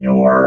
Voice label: "your", robot